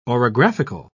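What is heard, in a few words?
"orographical", British English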